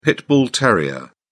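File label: "pit bull terrier", British English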